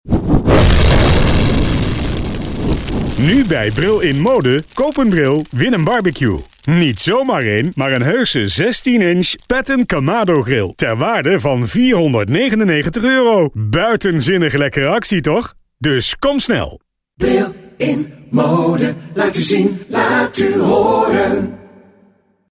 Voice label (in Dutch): radiospot bbq